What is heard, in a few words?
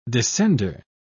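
"descender", British English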